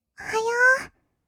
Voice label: "おはよう", kawaii voice; ASMR; 挨拶; 1200 kawaii voice!!

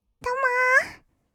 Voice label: どもー, kawaii voice; ASMR; 挨拶; 1200 kawaii voice!!

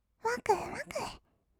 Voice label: "わくわく", kawaii voice; 1200 kawaii voice!!; 色々なワンショット

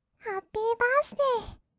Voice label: "ハッピーバースデー", kawaii voice; ASMR; 色々なワンショット

